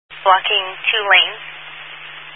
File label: Highway Patrol, Phoenix Metro West Area, scanner